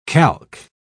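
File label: "calque", British English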